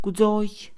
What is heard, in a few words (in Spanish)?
curandero, Mixe, Mujer; Religión; INALI